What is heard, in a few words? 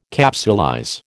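"capsulize", American English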